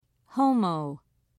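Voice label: "homo", British English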